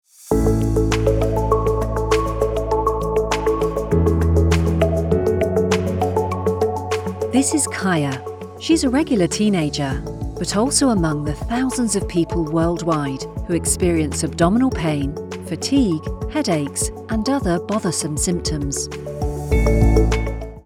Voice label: Animation music, instructional, British voice; Thermo Fisher Celiac